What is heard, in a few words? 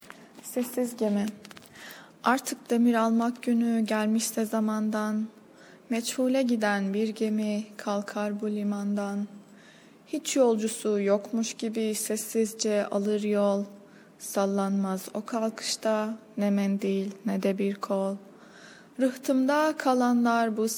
silent ship turkish; city of nonexistence